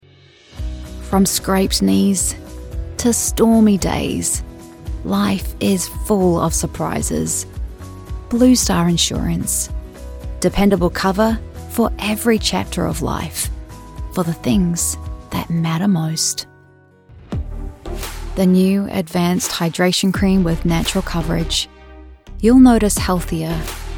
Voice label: Australian Commercial